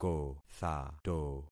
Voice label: "kothato", concat syllables; Affixing experiment

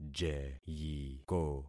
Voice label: "jeyiko", concat syllables; Affixing experiment

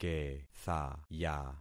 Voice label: "kethaya", concat syllables; Affixing experiment

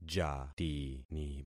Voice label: "jatini", concat syllables; Affixing experiment

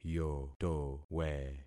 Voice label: "yotowe", concat syllables; Affixing experiment

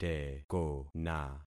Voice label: "tekona", concat syllables; Affixing experiment

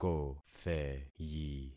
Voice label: "kotheyi", concat syllables; Affixing experiment

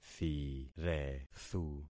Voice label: "thirethu", concat syllables; Affixing experiment

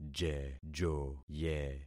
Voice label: "jejoye", concat syllables; Affixing experiment

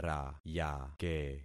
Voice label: "rayake", concat syllables; Affixing experiment

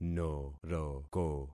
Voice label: "noroko", concat syllables; Affixing experiment

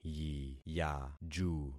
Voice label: "yiyaju", concat syllables; Affixing experiment

